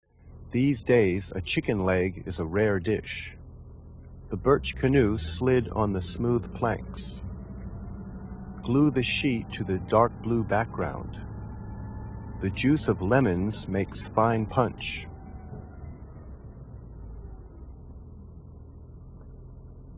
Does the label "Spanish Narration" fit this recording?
No